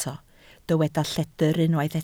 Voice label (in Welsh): "lledr", Welsh